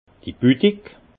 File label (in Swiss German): "budig", alsace; vin; atelier